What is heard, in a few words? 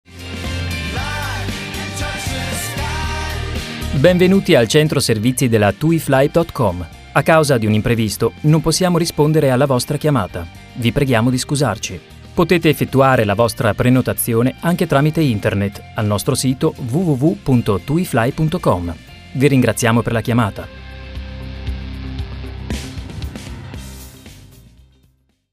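Italian, Kommentar, Freundlich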